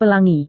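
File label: "pelangi", Indonesian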